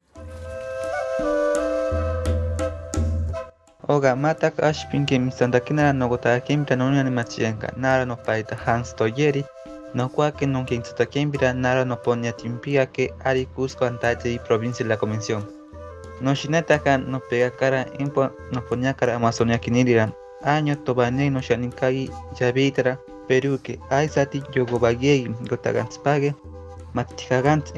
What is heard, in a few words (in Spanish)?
LENGUA MATSIGENKA